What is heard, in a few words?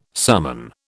"summon", British